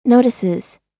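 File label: "notices", American English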